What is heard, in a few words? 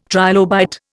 "trilobite", Indian